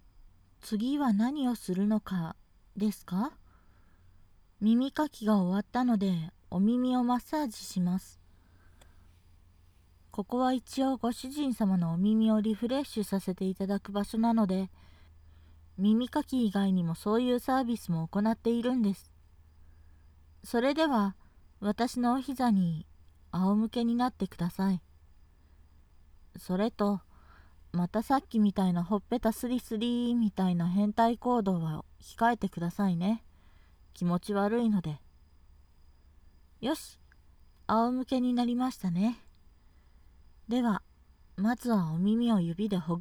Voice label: 毒舌ドSメイドのお耳マッサージ

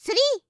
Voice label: "スリー", カウント

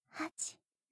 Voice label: 通常カウント, ASMR

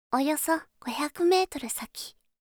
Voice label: およそ500メートル先, カーナビ 案内音声; カーナビ(で使えるかもしれない)案内音声

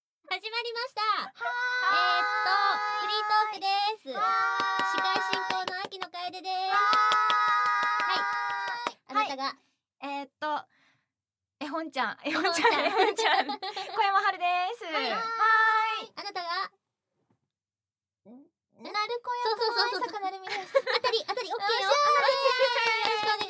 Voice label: 絵本女子三人のお茶会(フリートーク)

